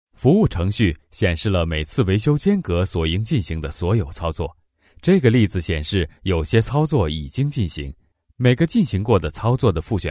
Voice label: Chinese Mandarin, elearning; voice over

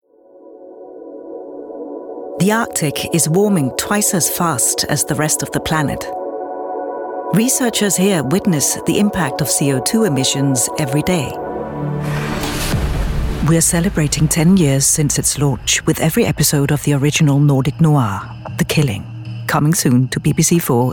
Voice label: Danish EN F Documentary, accent of denmark

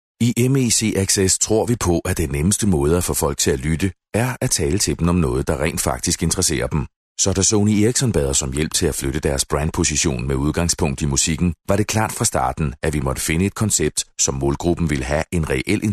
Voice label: Danish M Explainer; voice over

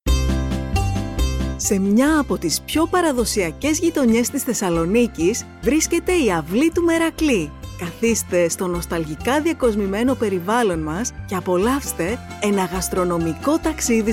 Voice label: Greek F Radio Ad; voice over

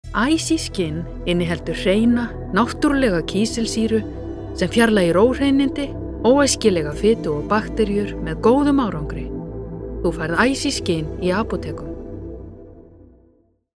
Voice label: Icelandic F Commercial

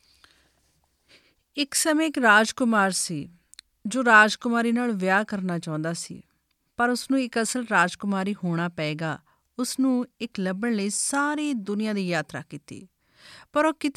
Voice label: Punjabi F Narration

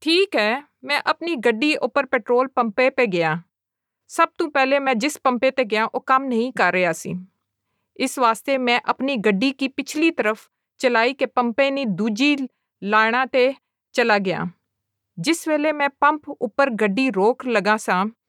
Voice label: Pahari-Nepali F Corporate; voice-over

